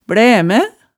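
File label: "blæme", Hemsedal dialect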